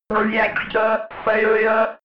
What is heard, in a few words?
robot voice reactor failure fx